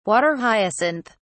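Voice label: "water hyacinth", British English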